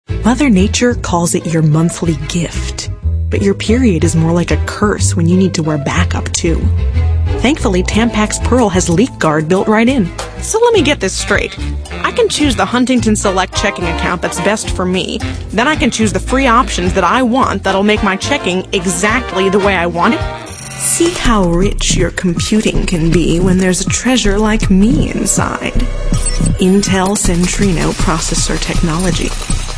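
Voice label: female, late 20s mid 30s, contemporary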